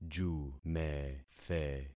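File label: "jumethe", concatenated syllables; Affixing experiment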